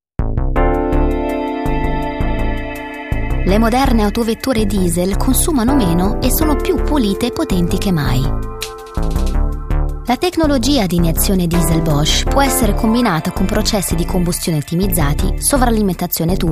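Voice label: Italian, Kommentar Neutral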